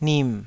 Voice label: "nim3", taiwanese